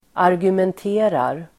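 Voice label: "argumenterar", Swedish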